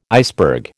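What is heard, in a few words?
"iceberg", American English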